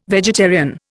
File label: "vegetarian", Indian